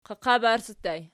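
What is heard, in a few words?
"qaqabarsi" ergative plural, Archi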